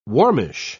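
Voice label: "warmish", English, British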